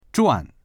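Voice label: "zhuan4", Mandarin Chinese; 赚; 儲ける；利潤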